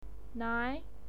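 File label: "nai", Mandarin Chinese, second tone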